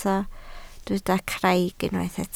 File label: "craig", Welsh